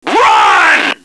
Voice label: run, radio, male